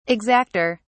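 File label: "exacter", British English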